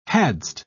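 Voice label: "hadst", British English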